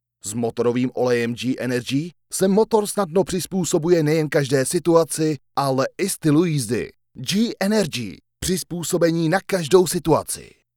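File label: Czech M Commercial, male; voice over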